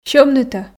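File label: "сьӧмнытӧ", Komi, female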